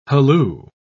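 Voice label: "halloo", British English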